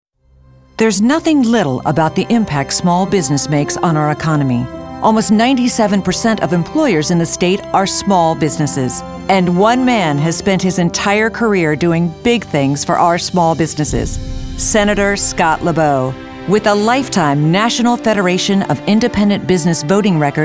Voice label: Political Positive

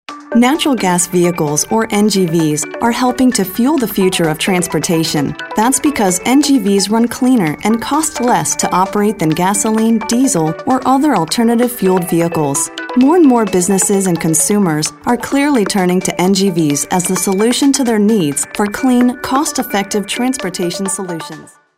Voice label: Informative

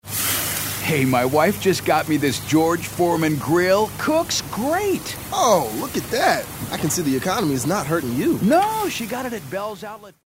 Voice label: real people urban

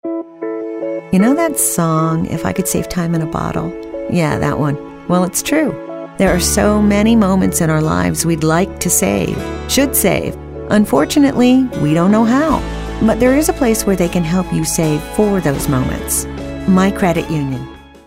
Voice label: thoughtful

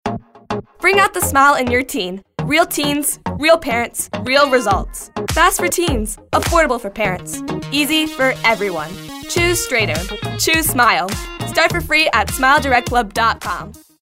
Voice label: upbeat

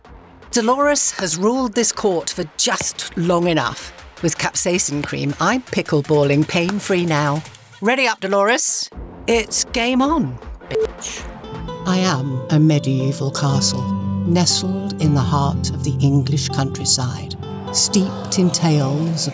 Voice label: Commercial

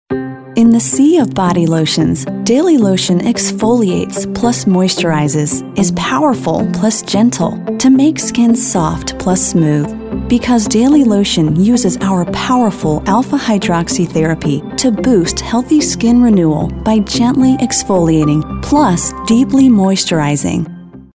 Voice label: Soothing

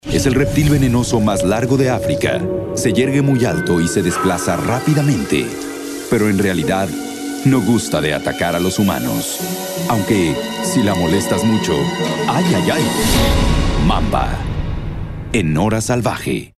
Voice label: Movie Trailer

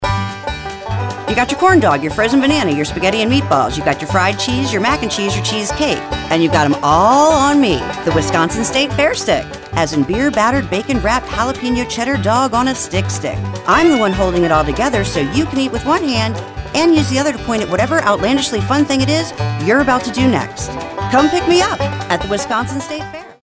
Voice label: folksy

